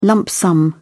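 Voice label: "lump sum", British English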